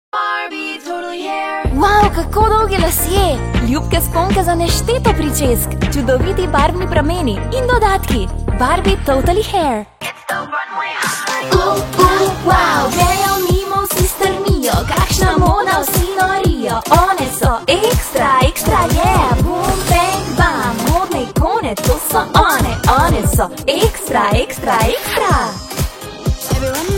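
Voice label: character voicereel slovenian female voice over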